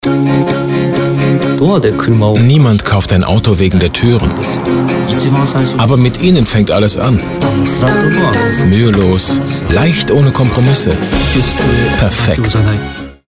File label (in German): DE Com, Stimmproben; Mazda5